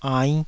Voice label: "ainn5", taiwanese syllables; 台語音節發音檔案